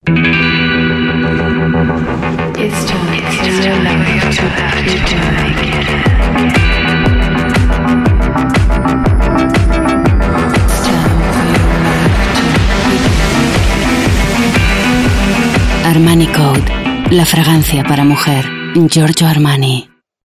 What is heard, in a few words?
European Spanish, Female; Armani